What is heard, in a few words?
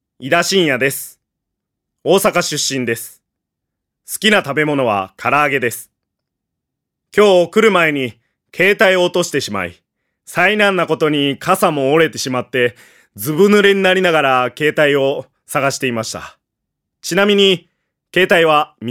freetalk, male voice